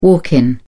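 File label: "walk in", British English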